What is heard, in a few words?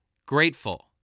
"grateful", spelling